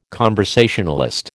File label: "conversationalist", American